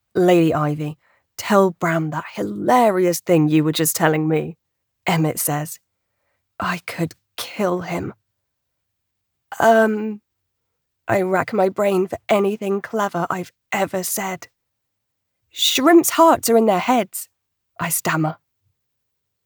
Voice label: Male and female dialogue fast paced